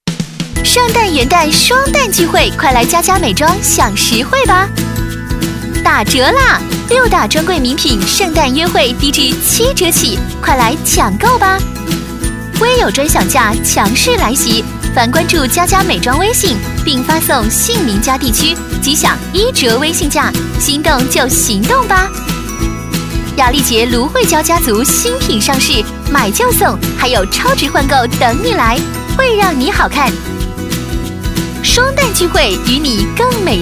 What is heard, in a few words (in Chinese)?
女国 广告 节日 圣诞元旦节日广告 甜美